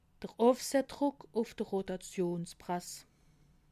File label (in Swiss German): "rotationsprass", alsace